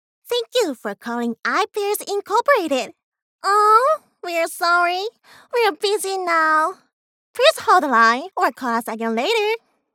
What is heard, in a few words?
en